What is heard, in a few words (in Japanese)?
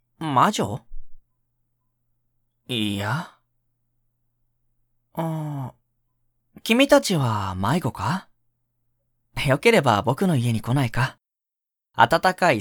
dansei